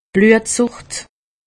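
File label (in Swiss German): "bluetsuscht", Haut Rhin; medecine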